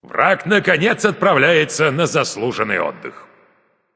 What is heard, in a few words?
slain you enemy, russian; caster